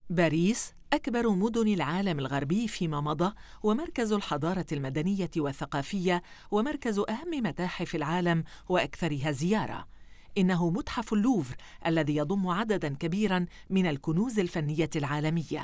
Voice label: Documentary